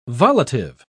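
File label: "volitive", British English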